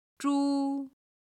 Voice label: "zhu", Chinese